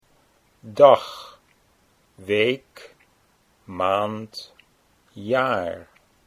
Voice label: "dag week", Dutch

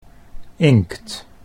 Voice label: "inkt", Dutch

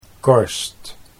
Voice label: "korst", Dutch

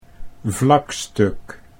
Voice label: "vlakstuk", Dutch

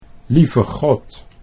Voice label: "lieve God", Dutch

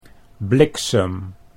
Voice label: "bliksem", Dutch

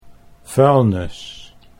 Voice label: "vuilnis", Dutch

